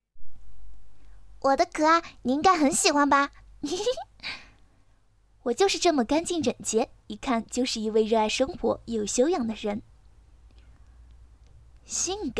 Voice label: 四种情绪女声

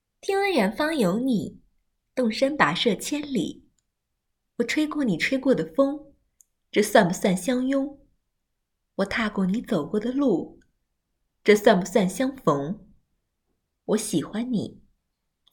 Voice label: 短视频配音甜美

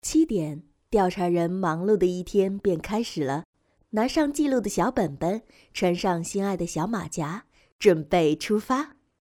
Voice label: 视频年轻女

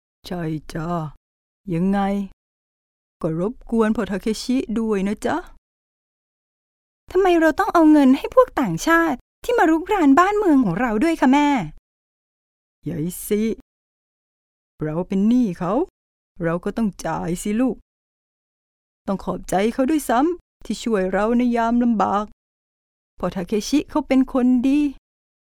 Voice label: 泰语女

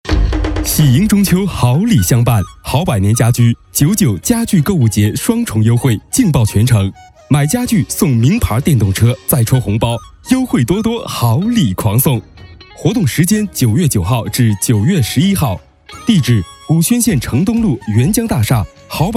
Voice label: 促销广告家具城

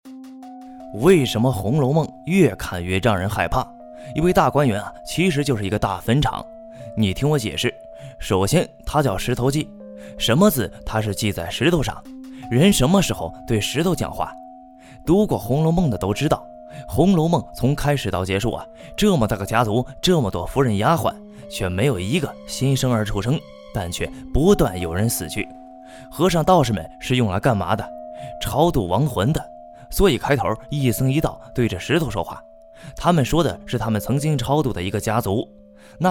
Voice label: 抖音 恐怖小故事; 红楼梦

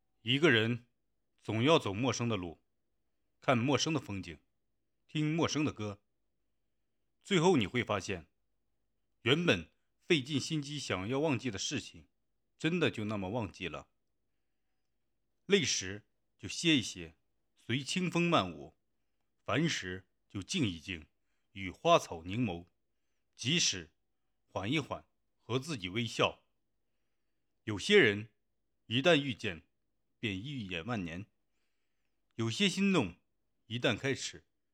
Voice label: 沉稳大气律师音

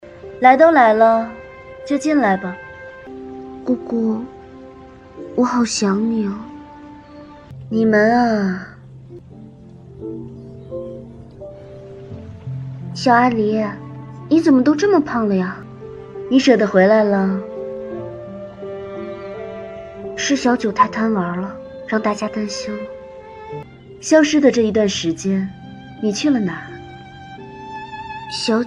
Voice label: 角色模仿; 白浅凤九

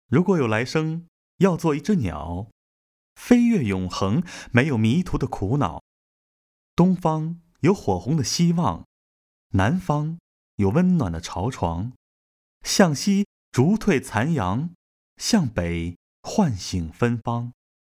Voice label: 情感类

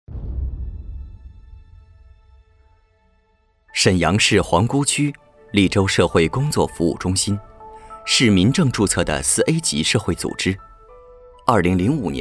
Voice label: 政府机构宣传片

